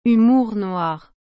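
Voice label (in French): "humour noir", French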